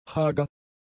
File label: "hager", German; adjectives